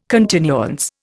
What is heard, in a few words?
"continuance", Indian